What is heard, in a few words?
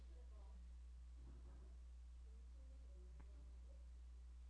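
"cartoon", spoken word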